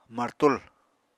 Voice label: "martul", Apatani

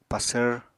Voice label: "pasvr", Apatani

